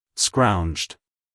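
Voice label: "scrounged", American English, male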